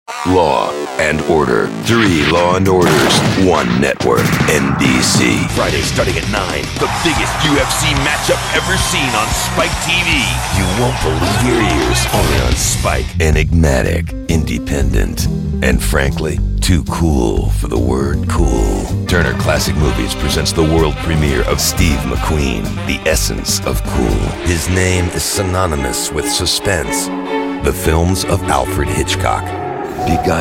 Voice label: promo, promo men